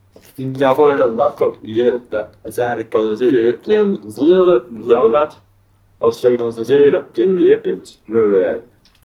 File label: a russian man with a